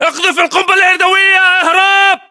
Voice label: inform incoming grenade, english battlechatter